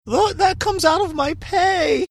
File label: saying look that comes out of my pay funny